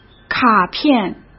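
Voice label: "卡片" Mandarin Chinese; hsk; chinese-flashcards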